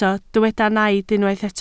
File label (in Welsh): "naid", Welsh